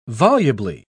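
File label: "volubly", British English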